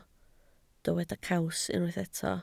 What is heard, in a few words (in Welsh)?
"caws", Welsh